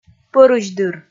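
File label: "пӧрысьдыр", Komi, female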